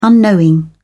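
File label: "unknowing", English, British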